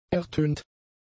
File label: "ertönt", German; adjectives